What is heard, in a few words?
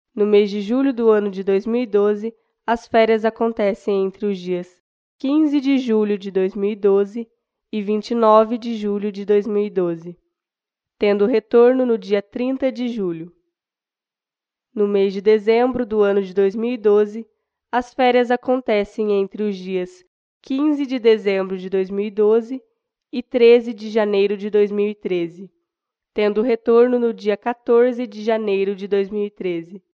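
Portuguese Brazil, female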